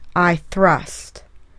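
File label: "I thrust", English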